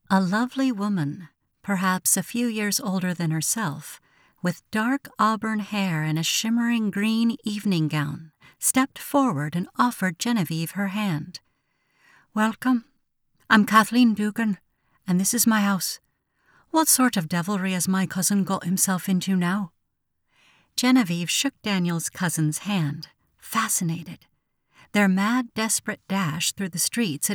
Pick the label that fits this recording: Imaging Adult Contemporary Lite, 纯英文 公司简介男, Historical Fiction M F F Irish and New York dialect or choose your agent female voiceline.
Historical Fiction M F F Irish and New York dialect